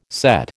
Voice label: "sett", American

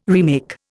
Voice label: "remake", Indian

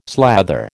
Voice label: "slather", American

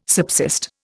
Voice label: "subsist", Indian

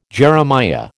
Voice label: "Jeremiah", American

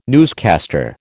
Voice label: "newscaster", American

